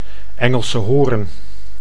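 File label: "Engelse hoorn", Dutch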